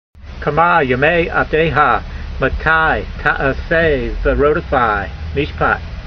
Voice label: voice, hebrew language; Psalm 119:84; kama yemay-av'deyha, matai ta'a'sey ve'rodefai meeshpat